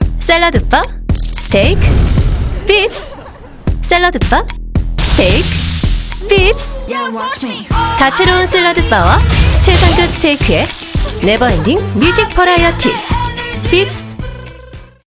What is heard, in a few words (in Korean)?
광고 밝은 신나는 경쾌한